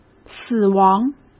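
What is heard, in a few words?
"死亡", Mandarin Chinese; hsk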